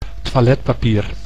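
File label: "toiletpapier", Dutch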